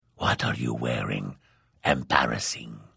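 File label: Hello, Vo; Morrowind GOTY GOG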